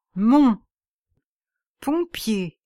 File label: "mon pompier", French